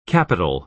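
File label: "capital", American English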